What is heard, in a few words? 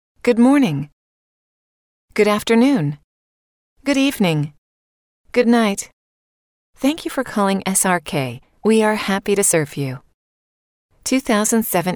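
English American female Phone Prompts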